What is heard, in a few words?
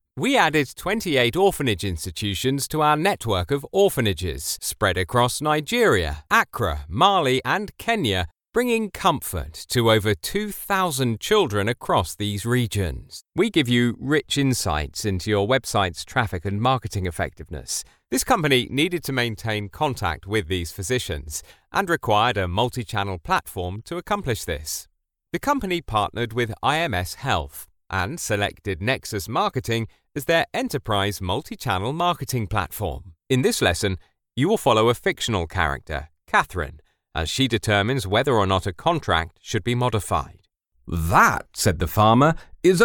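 narration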